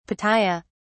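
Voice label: "Pitaya", British English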